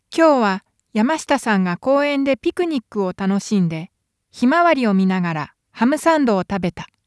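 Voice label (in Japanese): dynamic, 22050 Hz, xlr, バランス方式; ダイナミックマイク Sony F-V620; オーディオ・インターフェイス Focusrite Scarlett 4i4 Third generation; XLRケーブルで接続; 音質を考慮したDAISY製作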